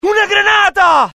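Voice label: fear grenade, IT; mohaa; dialogue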